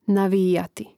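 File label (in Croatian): "navijati", Hrvatski; mreznik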